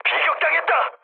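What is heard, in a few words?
Korean aircraft damaged mood medium; speech; War Thunder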